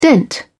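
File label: "dent", British English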